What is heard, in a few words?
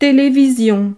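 "television", French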